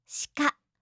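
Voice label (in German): "shika", japanische Mädchennamen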